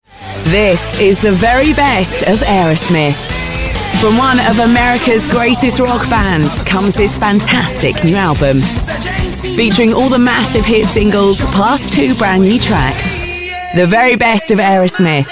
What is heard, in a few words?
female english commercial compilation